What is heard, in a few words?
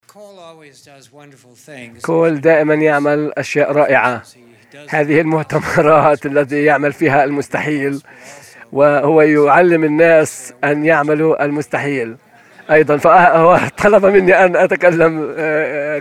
Arabian; arabic; iclrs symposium 2009